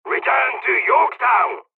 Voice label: dialogs polish; War Thunder